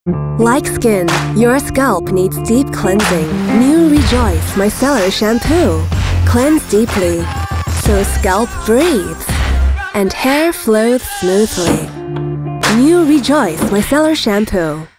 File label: Cool Confident